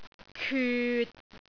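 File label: "kyut6", Cantonese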